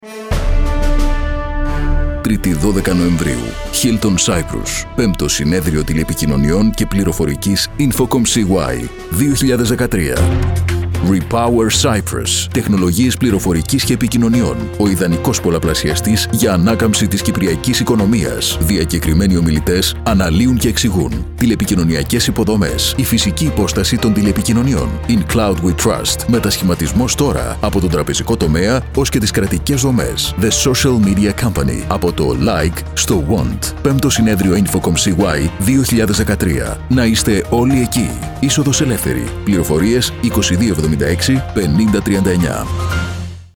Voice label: radio spot